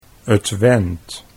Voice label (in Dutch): "twent", Dutch